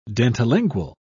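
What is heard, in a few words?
"dentilingual", British English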